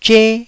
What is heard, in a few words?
"zhe1", Taiwanese syllable